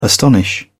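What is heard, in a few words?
"astonish", British English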